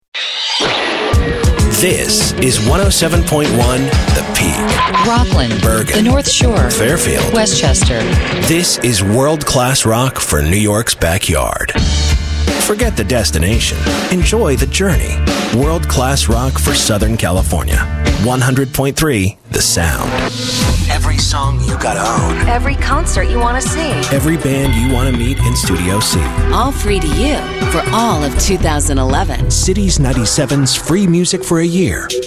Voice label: adult alt, radio imaging

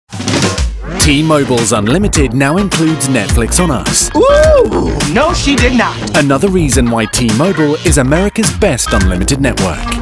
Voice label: languages men

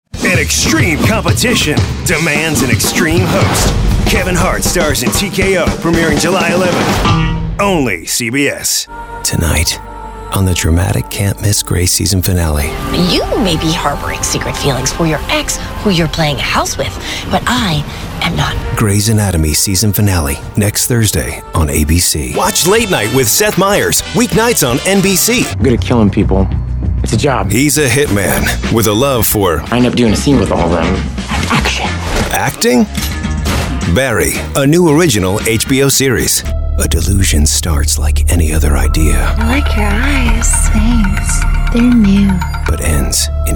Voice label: promo male

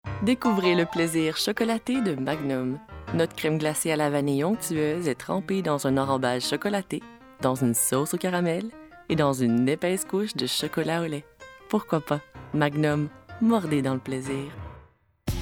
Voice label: languages commerical french

